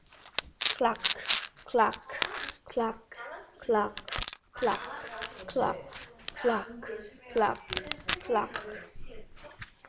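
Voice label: "clock", spoken word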